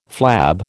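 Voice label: "flab", American English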